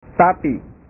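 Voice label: "tapi", Indonesian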